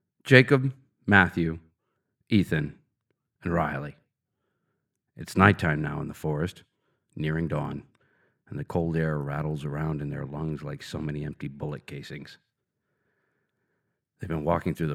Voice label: narrator apocalypses, voiceover